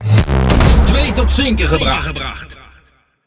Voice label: NL Speech Double Kill; World Of Warships